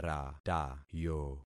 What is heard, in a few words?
"ratayo", concat syllables; Affixing experiment